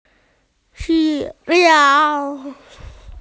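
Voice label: "wish", Mandarin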